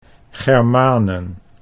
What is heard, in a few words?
"Germanen", Dutch